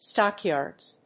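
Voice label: "stock-yards", English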